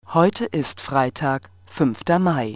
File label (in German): Datumsansage Funkarmbanduhr